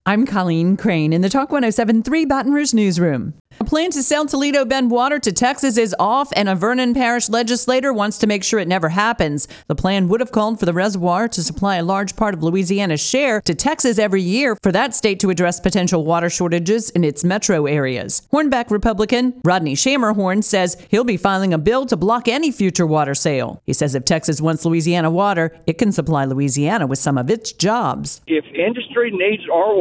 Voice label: Newscast; Guaranty